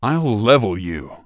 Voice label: Blood elf engineer warcry en; 工人（魔兽争霸3）; 人类